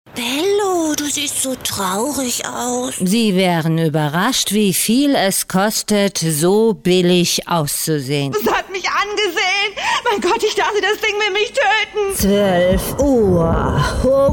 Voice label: female german voice over character

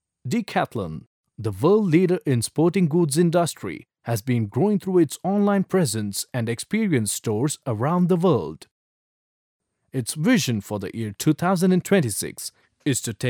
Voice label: male tamil voice over talent english

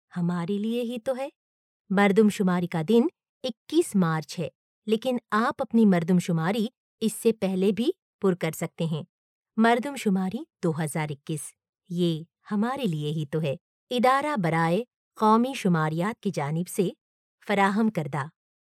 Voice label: female urdu voice over narrative

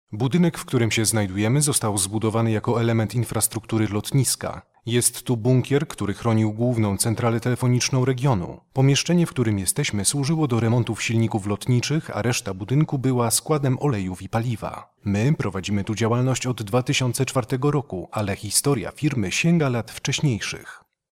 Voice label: male polish voice over talent narrative

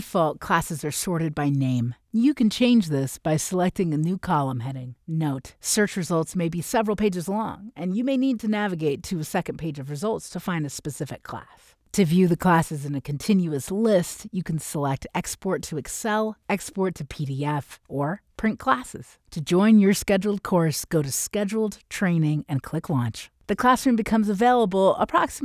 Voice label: English USA, english american voice over talent

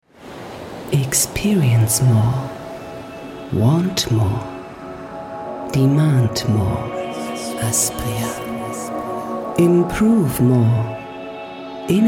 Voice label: female german voice over english

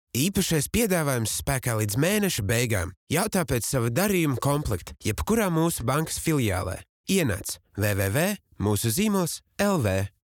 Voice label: male latvian voice over talent narrative

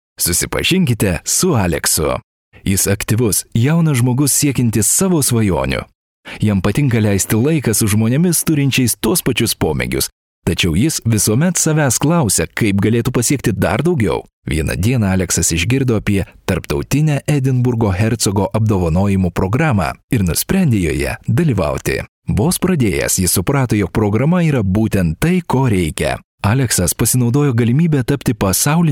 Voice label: male lithuanian voice over talent upbeat

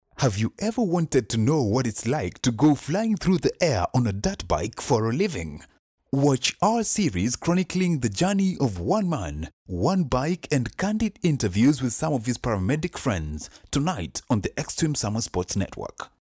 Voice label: male african voice over upbeat, african english

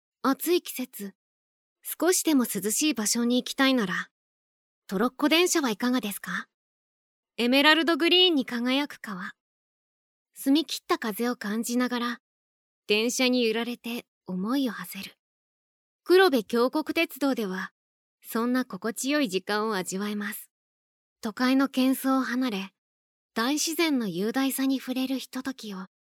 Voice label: female japanese voice over narrative

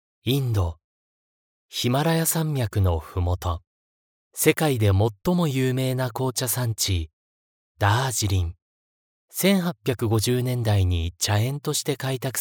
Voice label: male japanese voice over talent narrative

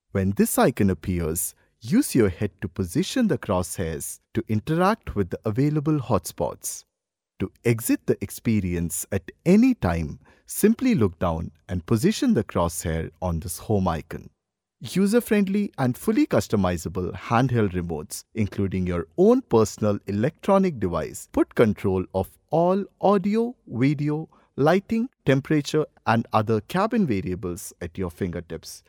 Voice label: male indian voice over narrative

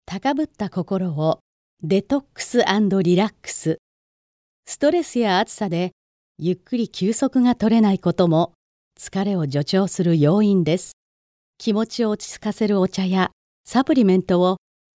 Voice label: female japanese voice over narrative

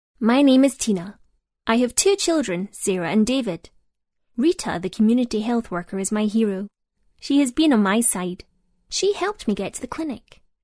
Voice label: female scottish voice over character

